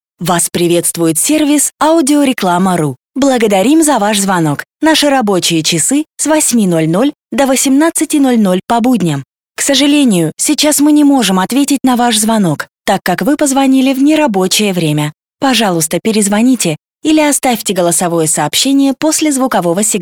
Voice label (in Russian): автоответчик